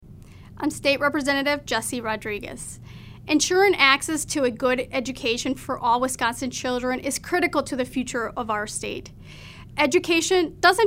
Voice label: Radio Address